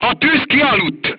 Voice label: voice message fire extinguished, crew tanks hungarian